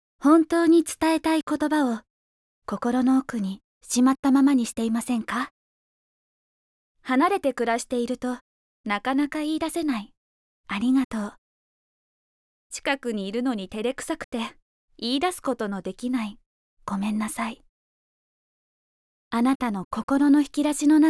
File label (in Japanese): ナレーション